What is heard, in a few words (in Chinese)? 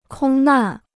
"空难", Chinese